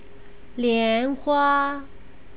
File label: "lianhua", Chinese pronunciation